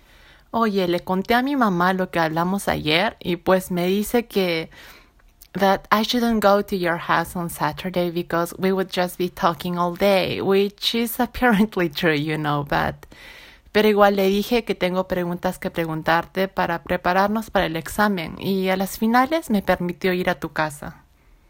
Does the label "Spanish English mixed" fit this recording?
Yes